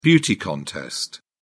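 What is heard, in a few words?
"beauty contest", British English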